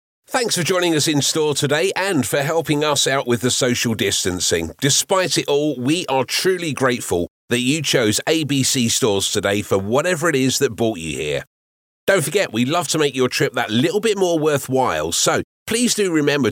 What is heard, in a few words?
continuity radio